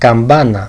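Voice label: "kampana", Greek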